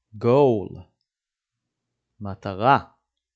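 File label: "goal" English, "מטרה" Hebrew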